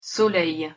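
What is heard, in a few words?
"solh1eie", Proto-Indo-European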